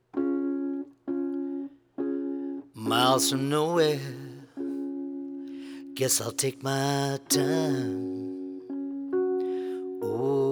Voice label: Neumann Tube Mic Test